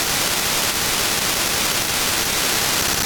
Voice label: APCO25P2 voice